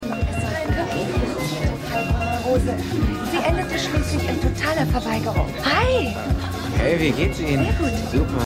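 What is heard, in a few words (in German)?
Ärztin; AusgerechnetAlaska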